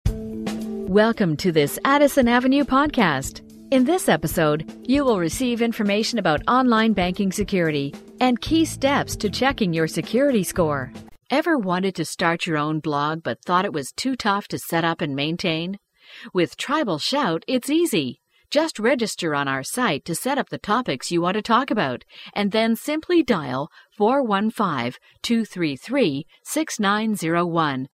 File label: Podcast